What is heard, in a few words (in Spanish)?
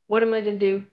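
Voice Acting es F, Spanish, female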